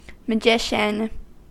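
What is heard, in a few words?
"magician", US English; pronunciations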